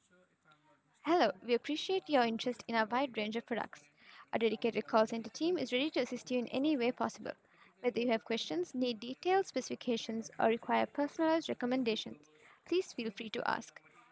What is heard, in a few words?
Jabra Evolve2 40